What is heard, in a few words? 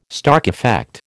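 "Stark effect", American English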